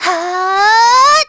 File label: Casting, kr; kingsraid